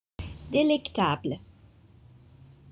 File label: "delectable", French